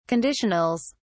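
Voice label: "conditionals", British English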